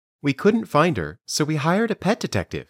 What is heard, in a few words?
Dictation